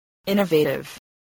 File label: "innovative", voice spelling